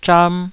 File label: "zham2", Taiwanese